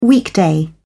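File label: "weekday", British English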